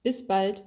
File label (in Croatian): njemački; POP UP Zagreb